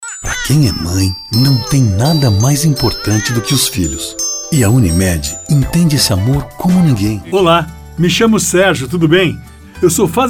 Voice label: acting

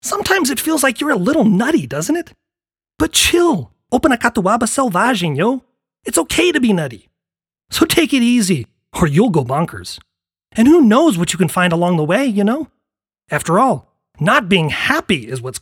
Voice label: Cupido Ingles; CATUABA SELVAGEM

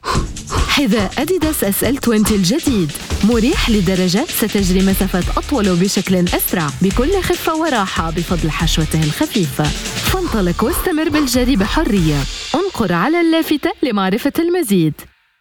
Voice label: Modern Standard Arabic In App Ad; Adidas SL 20

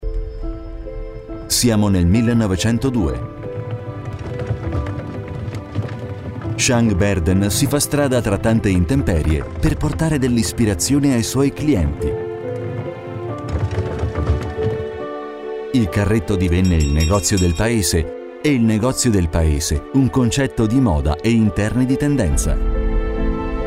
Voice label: Narration IT

